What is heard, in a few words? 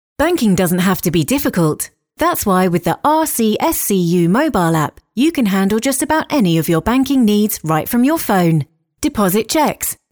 Professional